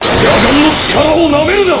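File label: "objection", Japanese; gundam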